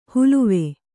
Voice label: "ಹುಲುವೆ", Kannada

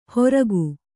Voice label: "ಹೊರಗು", Kannada; horagu; noun; outside

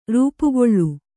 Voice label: "ರೂಪುಗೊಳ್ಳು", Kannada

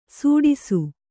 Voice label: "ಸುಡಿಸು", Kannada

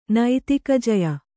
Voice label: "ನೈತಿಕಜಯ", Kannada; naitika jaya; noun

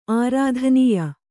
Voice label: "ಆರಾಧನೀಯ", Kannada

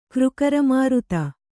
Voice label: "ಕ್ರುಕರಮಾರುತ", kannada

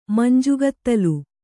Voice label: "ಮಂಜುಗತ್ತಲೆ", Kannada

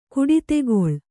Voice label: "ಕುಡಿತೆಗೊಳ್", kannada

